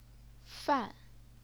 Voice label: "fan4", chinese pinyin

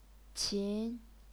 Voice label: "qin2", chinese pinyin

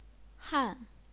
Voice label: "han4", chinese pinyin

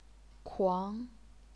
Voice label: "kuang2", chinese pinyin

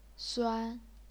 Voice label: "suan1", chinese pinyin

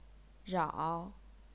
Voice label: "rao3", chinese pinyin

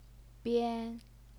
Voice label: "bian1", Chinese pinyin

